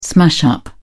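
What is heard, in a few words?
"smash-up", English, British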